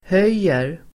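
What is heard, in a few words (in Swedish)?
"höjer", Swedish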